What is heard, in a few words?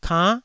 "kann9", taiwanese syllables